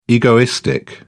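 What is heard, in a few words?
"egoistic", British English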